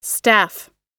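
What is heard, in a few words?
"staff", American English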